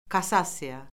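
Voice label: "kassasiya", Azerbaijani; Orfoqrafiya Lüğəti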